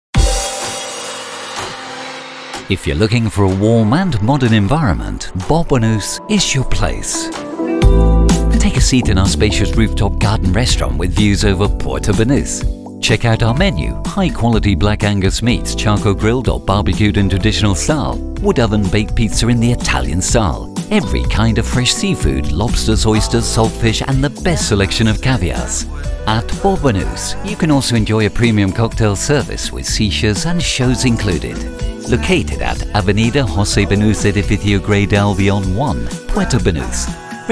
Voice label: English